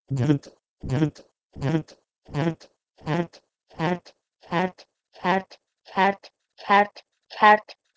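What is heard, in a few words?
Proto-Indo-European ghrdh to Persian cart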